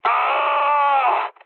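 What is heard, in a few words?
damaged pilot, mood low, French; War Thunder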